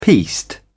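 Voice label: "piste", British English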